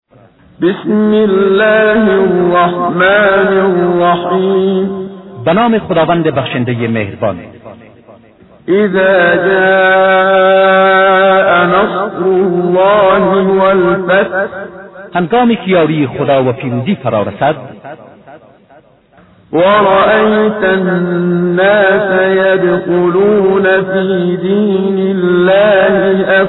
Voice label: Surah Farsi; Menshay Translation